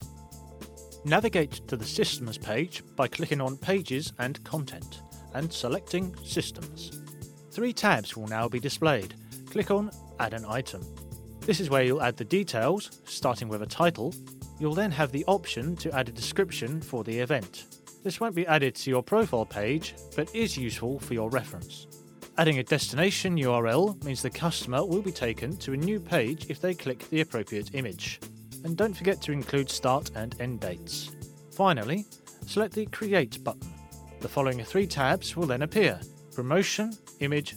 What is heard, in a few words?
E Learning